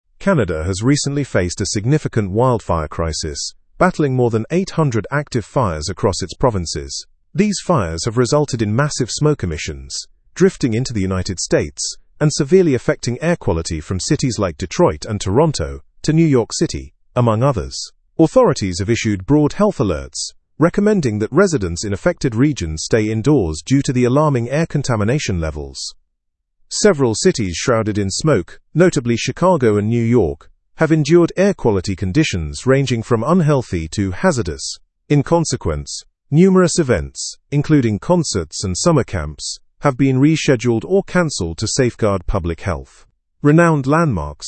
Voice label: learn english through news advanced; canadian wildfires affect air quality in us regions